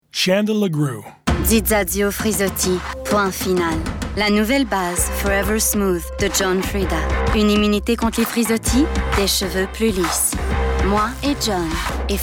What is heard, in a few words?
French Commercial